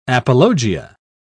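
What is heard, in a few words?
"apologia", British English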